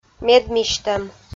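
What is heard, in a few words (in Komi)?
"медмисьтӧм", Komi-Zyrian, female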